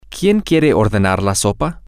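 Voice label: who wants to order the soup, Spanish, female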